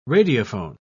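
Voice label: "radiophone", British English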